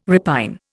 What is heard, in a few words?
"repine", Indian